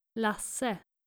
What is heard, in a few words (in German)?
"lasse", nordische jungennamen